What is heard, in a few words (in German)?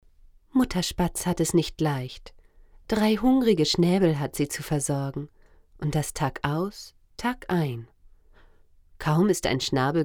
narration kinder; demo